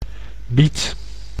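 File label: "biet", Dutch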